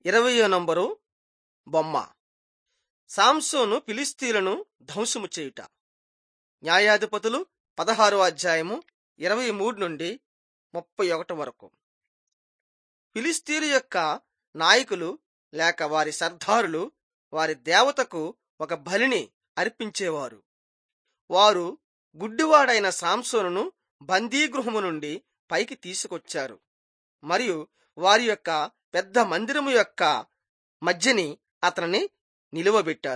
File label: telugu bangladesh